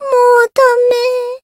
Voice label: Voice retire jp